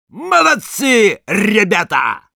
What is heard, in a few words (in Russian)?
Sniper cheers, ru